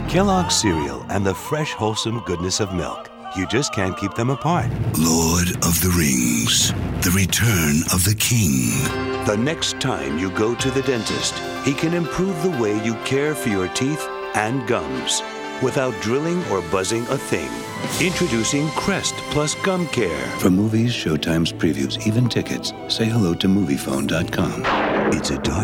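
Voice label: commercial; Political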